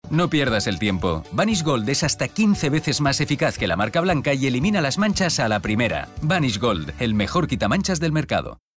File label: male spanish cleaning product comercial; ideasonora